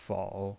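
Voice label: "fou" Mandarin Chinese, third tone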